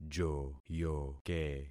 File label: "joyoke", concat syllables; Affixing experiment